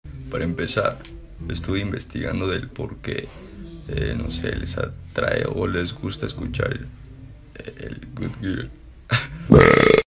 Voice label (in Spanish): provecho voz gruesa